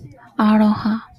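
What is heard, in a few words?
"aloha", Japanese, female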